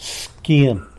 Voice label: "xquiny", corner, tlacolula

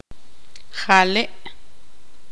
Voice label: tzutujil

